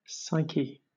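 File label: "psyche", British English; pronunciations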